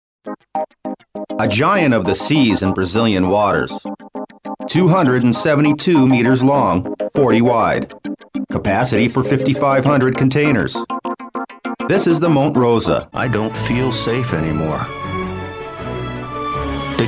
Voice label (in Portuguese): locutor americano